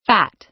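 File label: "phat", American English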